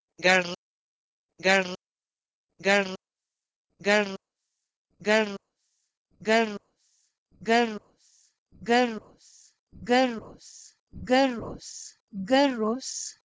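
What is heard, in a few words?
Proto-Indo-European gar to Doric Greek garus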